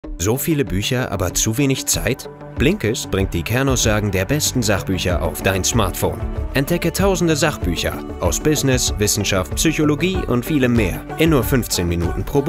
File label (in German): Webspot; Stimmfarbe: Weich, Warm, Rauchig; Blinkist